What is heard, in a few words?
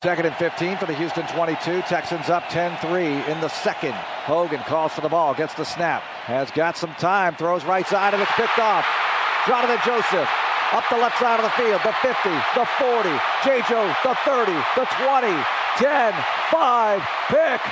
Spanish English; Pick-6